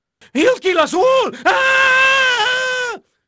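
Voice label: Portuguese male, voice; Pirates Of The Caribbean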